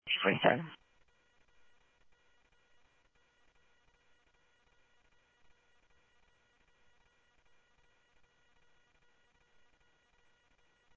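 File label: Mesa Police Department, scanner audio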